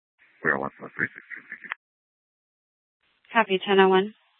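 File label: Mesa Police Department Superstition Dispatch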